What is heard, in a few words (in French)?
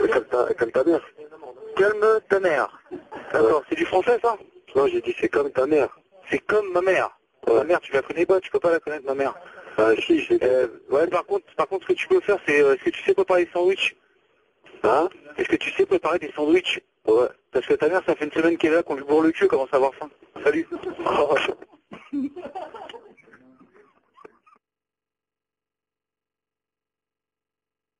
appel 18 femme